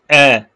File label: short voyels arabic alphabet